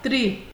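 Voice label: "three", Nigerian Pidgin, female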